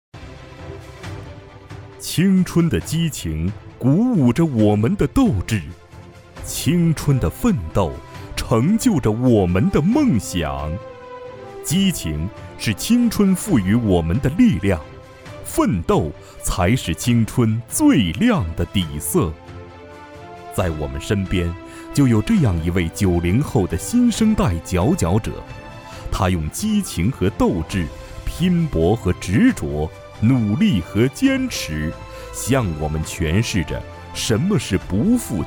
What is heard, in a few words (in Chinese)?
专题 颁奖 年会颁奖, 男